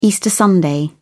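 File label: "easter sunday", British English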